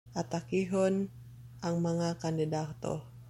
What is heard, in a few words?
Cebuano; attack the candidates; atakehon ang mga kandidato